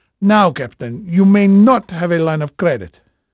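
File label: Portuguese male, voice; Pirates Of The Caribbean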